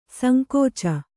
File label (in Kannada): "ಸಂಕೋಚ", Kannada; sankōca; noun; a shrinking; contraction.; fear; timidness.; shyness; coyness; bashfulness.